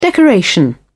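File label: "decoration", British English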